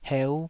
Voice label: "heu5", taiwanese

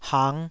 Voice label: "hang5", Taiwanese syllable

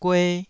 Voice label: "gue2", Taiwanese syllable

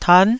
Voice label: "tan9", taiwanese Syllables

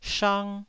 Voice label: "shang1", taiwanese

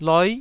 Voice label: "loi9", Taiwanese syllable

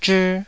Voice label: "zhii2", Taiwanese syllable

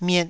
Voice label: "miet2", taiwanese

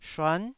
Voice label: "shuan9", taiwanese Syllables

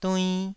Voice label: "duinn2", taiwanese syllables

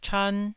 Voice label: "chan1", taiwanese

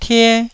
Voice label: "tie1", Taiwanese

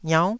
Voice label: "iaunn9", taiwanese syllables

